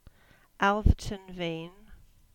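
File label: "Alverton Vean", English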